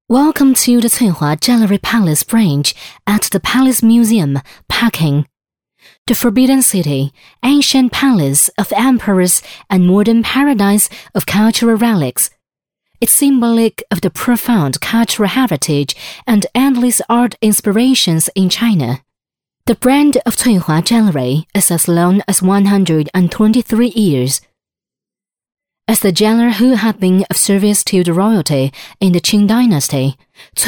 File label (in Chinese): 女, 英文, 景区宣传; 成熟知性